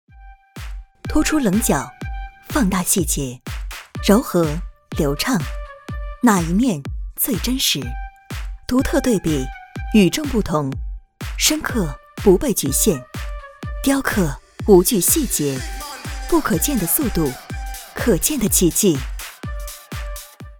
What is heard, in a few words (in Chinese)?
坚定利落; 速提